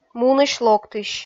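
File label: "мунысь-локтысь", Komi, female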